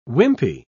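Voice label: "wimpy", British English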